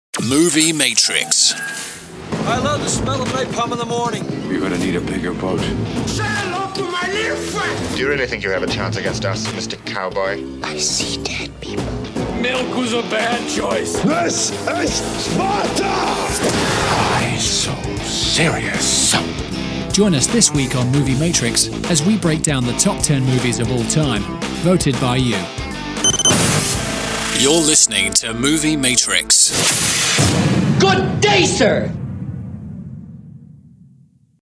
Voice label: english british podcasts